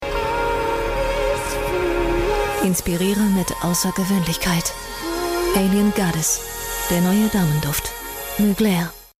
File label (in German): tv-werbung; mugler alien goddess